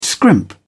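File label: "scrimp", British English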